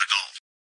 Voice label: adult; phasmophobia